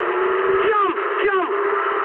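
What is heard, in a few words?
English bailout mood high; War Thunder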